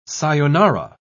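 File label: "sayonara", English, British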